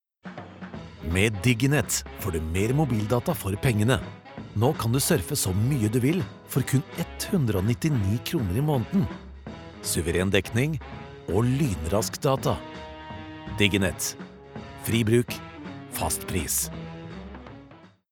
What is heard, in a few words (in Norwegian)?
no reklame